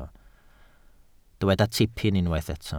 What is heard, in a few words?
"tipyn", Welsh